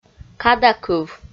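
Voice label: "кадакыв" Komi, female; kpv audio dict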